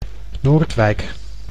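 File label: "Noordwijk", Dutch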